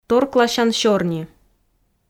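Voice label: "торкласян сёрни", Komi, female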